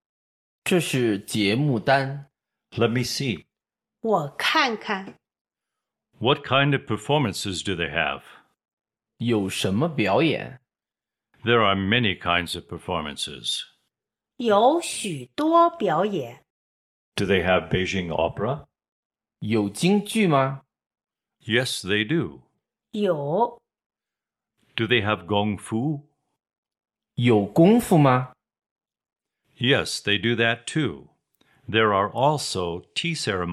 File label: Dialog